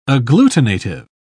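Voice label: "agglutinative", British English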